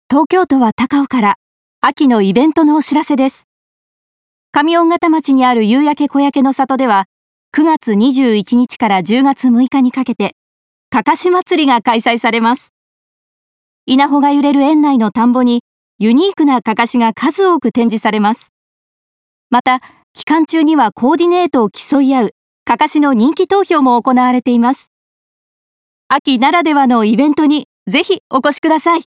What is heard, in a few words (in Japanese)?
イベント告知ナレ